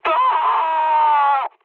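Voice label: damaged pilot, mood high, French; War Thunder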